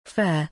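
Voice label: "fair", English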